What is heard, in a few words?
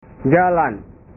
"jalan", bahasa